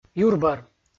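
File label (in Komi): "юрбӧр" Komi, male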